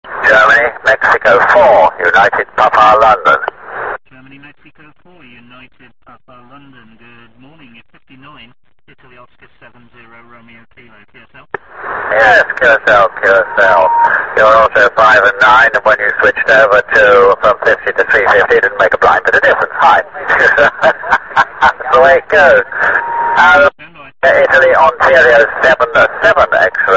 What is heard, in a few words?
qso